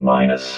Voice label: "minus", robot

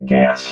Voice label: "gas", robot

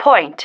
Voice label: "point", female

